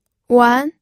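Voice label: "wan2", Mandarin Chinese, pinyin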